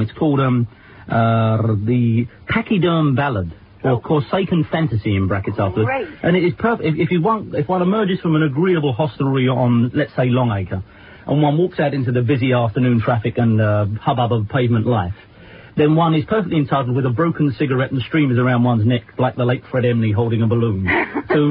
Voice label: drunk